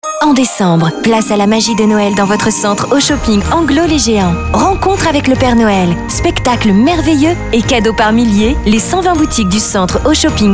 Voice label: Spot NOEL